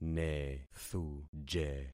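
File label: "nethuje", concatenated syllables; Affixing experiment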